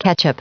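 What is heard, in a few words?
"ketchup", US English, recite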